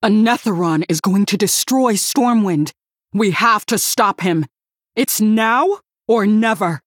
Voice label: Female Human

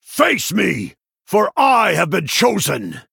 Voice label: Male Human Play

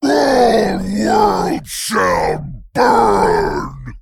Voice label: Male Doomguard

